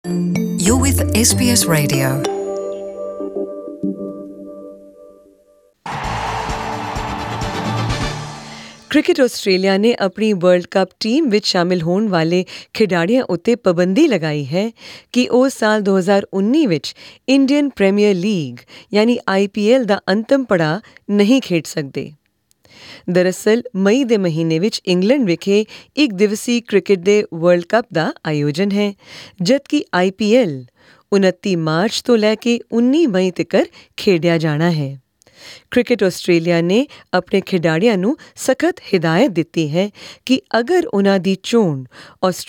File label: punjabi; Australians selected for World Cup to miss part of IPL; SBS Punjabi